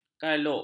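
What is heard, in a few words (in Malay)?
"kaluk", Malay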